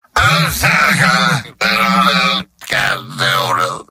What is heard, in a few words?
gibberish; demoman; video games; source engine; tf; mvm